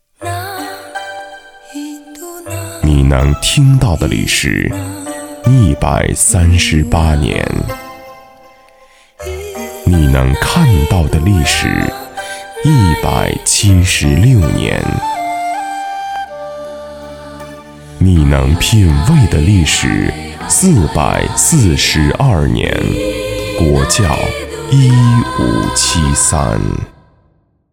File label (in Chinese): 广告酒类; 国窖